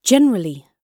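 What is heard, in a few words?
"generally", British English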